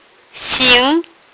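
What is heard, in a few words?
"xing2", Mandarin Chinese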